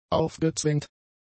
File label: "aufgezwängt", German; adjectives